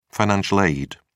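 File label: "financial aid", British English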